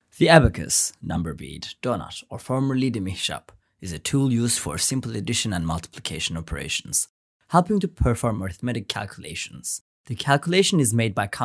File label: english